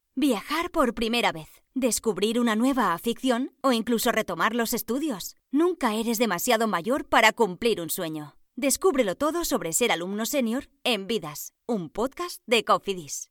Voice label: CORPORAT

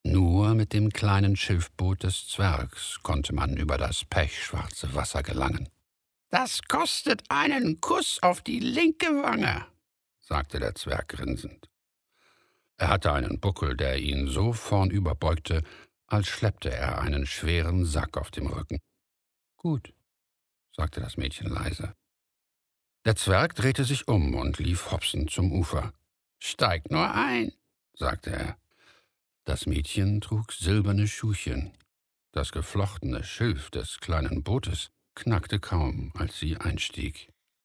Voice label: Audio Book; Audio Book Demo; Die Gärten von Dorr

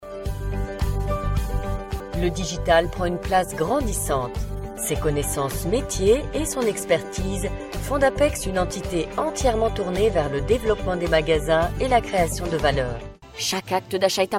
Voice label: CORPORATE

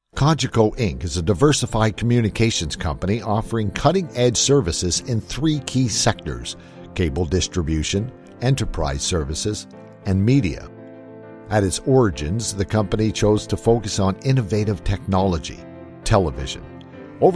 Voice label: corporate doc narration training

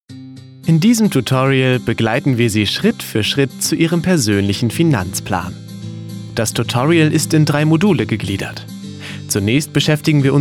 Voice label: E-Learning Finance

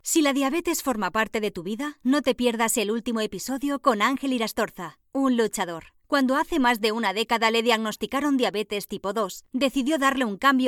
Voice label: E-LEARNING

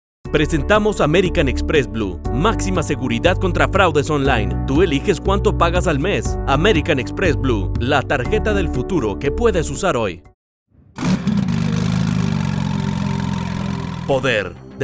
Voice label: Spanish

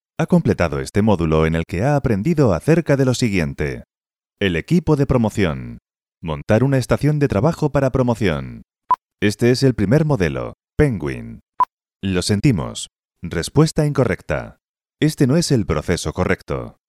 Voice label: E-Learning

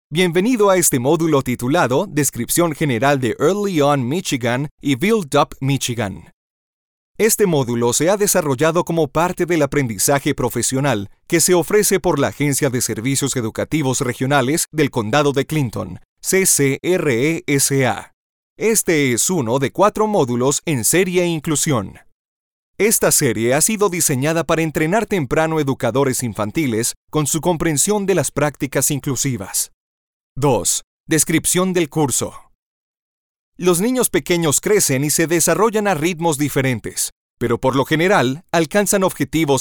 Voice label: E-Learning - SPA LATAM

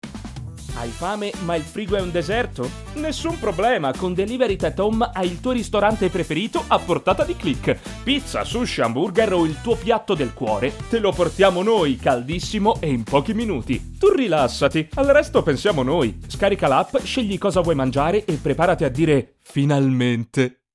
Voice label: Spot

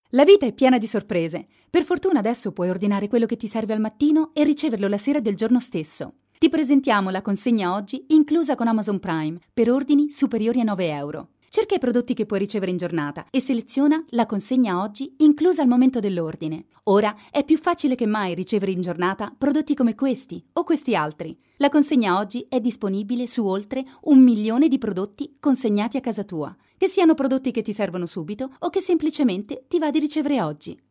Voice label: ITA AD; Amazon

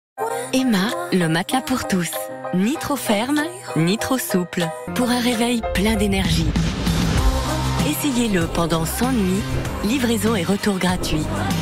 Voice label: pub FR

